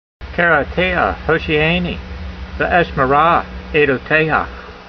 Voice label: voice, hebrew language; Psalm 119:146; kerateeha hoshee'aynee, ve'eshmerah aydoteyha